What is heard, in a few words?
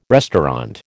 "restaurant", American